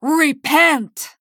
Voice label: Female Blood Elf Attack